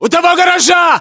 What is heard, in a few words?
landmark near garage, ru